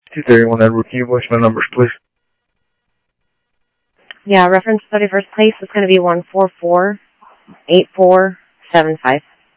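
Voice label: Phoenix police department, scanner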